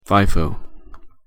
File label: pronunciation en fifo